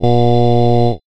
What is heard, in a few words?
male "O"